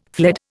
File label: "fled", Indian